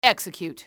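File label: execute, english female; XBOX; enemy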